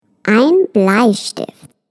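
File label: "pencil", German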